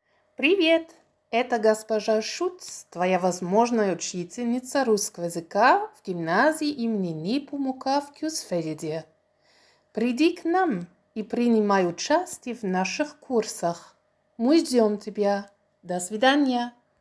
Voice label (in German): Russisch hoeren